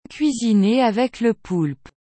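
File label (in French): "Cuisiner avec le poulpe", French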